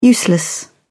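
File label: "useless", British English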